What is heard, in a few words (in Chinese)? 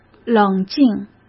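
"冷静", Mandarin Chinese; hsk; chinese-flashcards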